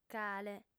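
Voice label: "cale", female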